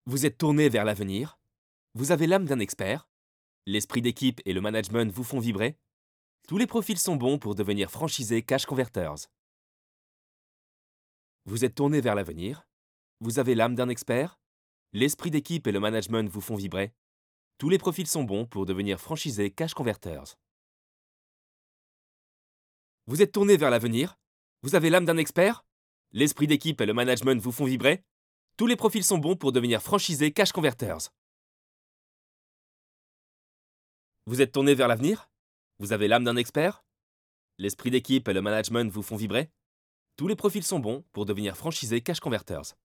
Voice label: male french commercial; cash converters

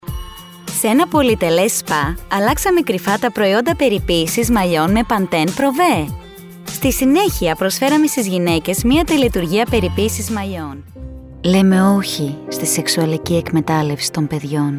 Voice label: female Greek compilation

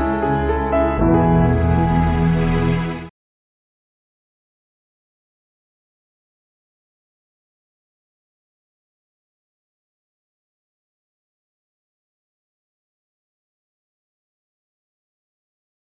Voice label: male english royal ascot commercial

